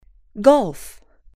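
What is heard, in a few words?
"golf", English, female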